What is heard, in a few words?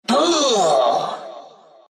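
lose, chinese; dota2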